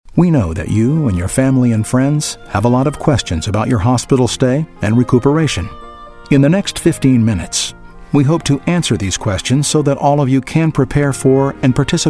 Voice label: english us m narration, voice demos